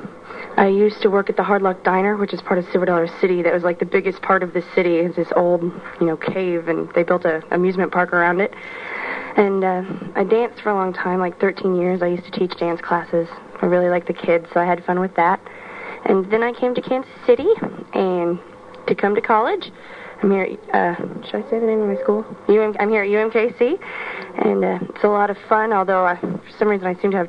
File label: missouri, dialects